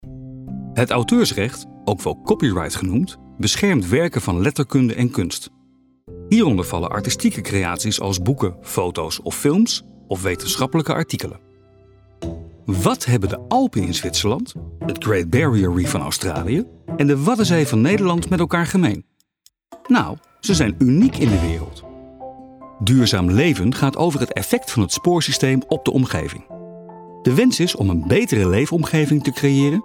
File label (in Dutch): narratief